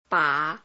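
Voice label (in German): Phoneme, chinesisch; Silben; Chinesischen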